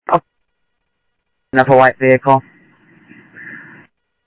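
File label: Sheriff East County, scanner audio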